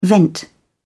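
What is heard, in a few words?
"vent", British English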